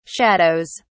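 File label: "shadows", British English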